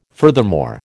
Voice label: "furthermore", American

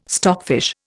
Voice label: "stockfish", Indian

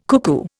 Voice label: "cuckoo", Indian

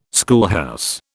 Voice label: "schoolhouse", British

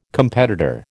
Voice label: "competitor", American

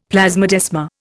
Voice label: "plasmodesma", Indian; Dictionary

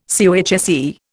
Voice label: "COHSE", Indian

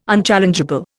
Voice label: "unchallengeable", Indian

